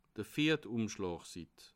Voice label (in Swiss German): "viert", alsace